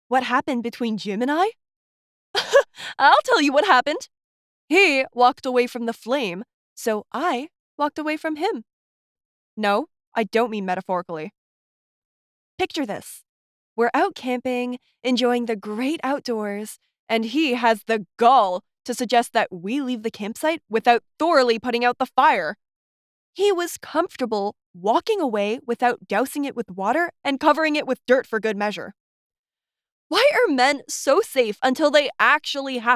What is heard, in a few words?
Promo; Fire Prevention